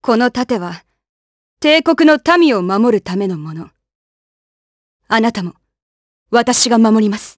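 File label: vox get Japanese; Glenwys; kingsraid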